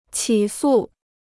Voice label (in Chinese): "起诉", Chinese